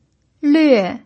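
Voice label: "lüe", Mandarin Chinese pinyin, tone 4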